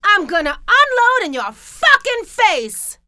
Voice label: fight, female; kingpin; blunt

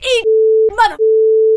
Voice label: fight, female; kingpin; hiredgirl; orders

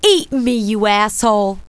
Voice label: cuss, female, profanity; kingpin

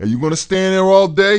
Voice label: cuss, profanity, male; kingpin

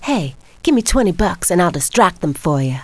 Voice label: distract, female; kingpin; yolanda

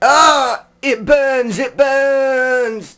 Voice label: fire pain

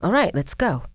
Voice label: female hired; kingpin; hiredgirl

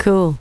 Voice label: "cool", female neutral; kingpin loc

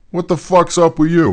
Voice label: male neutral talk; kingpin

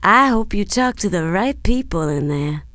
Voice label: female; kingpin; mona

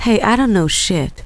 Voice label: know shit, female; kingpin loc; neutral

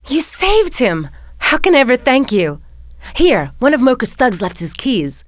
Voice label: success, female; kingpin; bambi

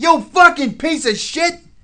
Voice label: cuss, profanity, male; kingpin

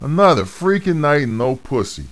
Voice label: male neutral talk; kingpin loc

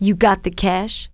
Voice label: got cash, female; kingpin; hiredgirl

